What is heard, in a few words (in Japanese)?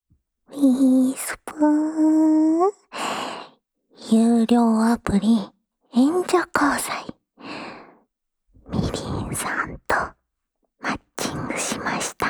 タイトルコール, ASMR